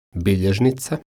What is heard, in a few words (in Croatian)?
"biljeznica", croatian